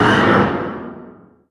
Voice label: vox pain